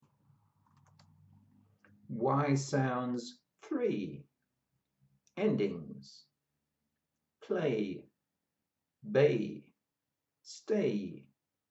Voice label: Y sounds endings, pronunciation of australian english